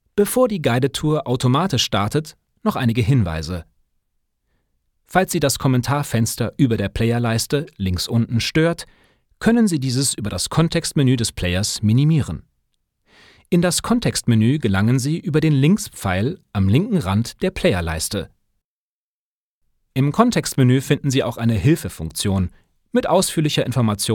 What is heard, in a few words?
elearning